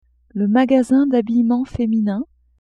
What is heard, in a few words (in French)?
"magasin d'habillement feminin", French; vetements